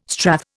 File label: "strath", Indian